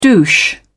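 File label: "douche", British English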